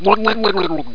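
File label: Sub pissed, Ships; Human Sounds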